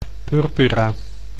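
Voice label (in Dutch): "purpura", Dutch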